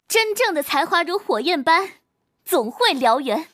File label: girl; shaoxiadiablo